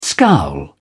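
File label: "scowl", British English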